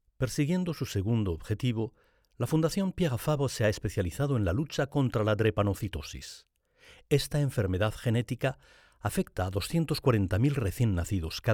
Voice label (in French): espagnol Narration institutionnelle